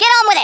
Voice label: "Hurry", Australian; Worms Armageddon

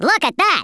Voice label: "watch this", US Sports

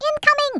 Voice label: "incoming", Brooklyn; Worms Armageddon

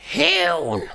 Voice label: "Revenge", Danish; Worms Armageddon; Danish-Pyrus

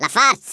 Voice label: "COWARD", Africaan, Speech; Worms Armageddon

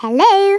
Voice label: "hello", Scouser

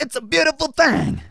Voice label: "PERFECT", Speech, Soul Man

